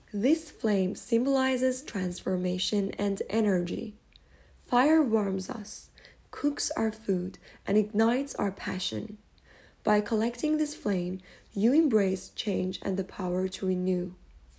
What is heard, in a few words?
Flame, Narration